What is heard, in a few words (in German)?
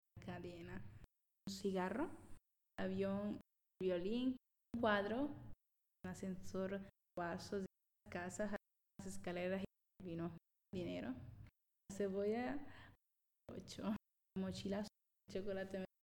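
Zusammengefugte Worter Schnell Spanisch, female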